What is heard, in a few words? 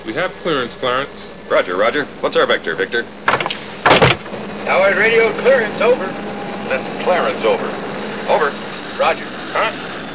Atc Chatter